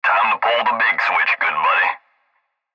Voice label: Cb Trucker Radio; Radio Voice; Blastwave

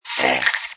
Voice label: Robot Voice "0"

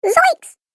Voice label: Cartoon Game Voice Zoiks

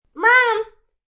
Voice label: Toddler Says Mom, Toddler talks; Talking; Voice

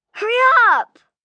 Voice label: Hurry Up, Calls, 1 child, 10 yrs.

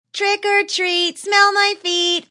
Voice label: Kid Says Trick or Treat Smell My Feet; Holiday

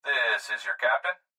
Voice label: Pilot Voice Clip, Pilot This Is Your Captain; Transportation Aircraft; Pa Announcement

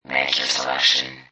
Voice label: Robot Voice "Make Your Selection"; Robot Sound Effects

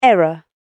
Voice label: "Error" British Female Voice; Recorded Voice Sound Effects; human voice sound effect

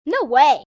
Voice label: No Way Kids, child, boy; Talking Sound Effects

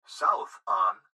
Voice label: Gps Voice, Male

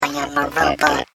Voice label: I Am A Robot Voice; Robot Sound Effects; science fiction sound effect; Andrew Fleming; Twistedtracks

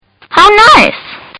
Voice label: Kid Says How Nice; Kid Says How Nice2; Talking Sound Effects

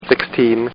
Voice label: Man Says, Talking; Partners In Rhyme

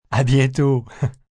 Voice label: "A bientot", Goodbyes, male, foreign, French

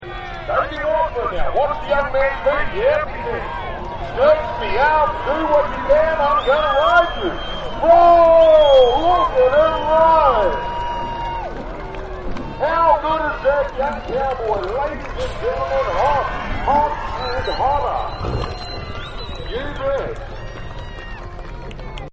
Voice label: Rodeo Announcer; Rodeos; Backgrounds; Partners In Rhyme

